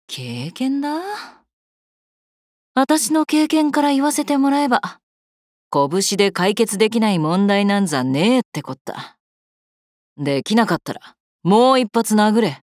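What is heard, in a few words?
voice Japanese; Lynn; Linen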